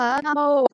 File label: omg reverse 3x